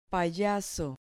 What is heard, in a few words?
"payaso", Spanish